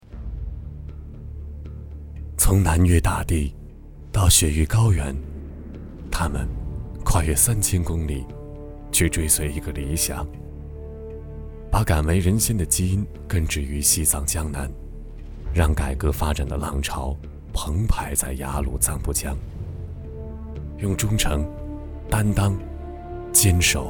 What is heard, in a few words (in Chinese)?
男 专题 西藏 低沉叙述